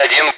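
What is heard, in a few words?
Speech, Russian, air traffic control; Digits; DCS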